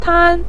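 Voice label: "tan", Mandarin Chinese syllable, neutral tone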